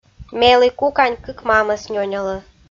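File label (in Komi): "мелі кукань кык мамӧс нёнялӧ", Komi-Zyrian, female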